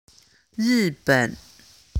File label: chinese pronunciation zhi chi shi ri